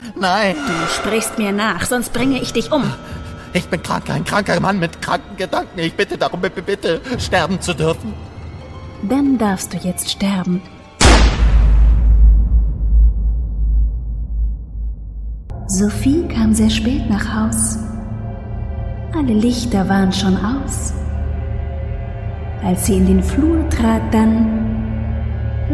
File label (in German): Sprechdemo Hoerprojekt